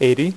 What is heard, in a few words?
"6", poker; iPoker 2000